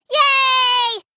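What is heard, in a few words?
"yaaay!", English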